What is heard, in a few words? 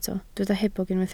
"hebog", Welsh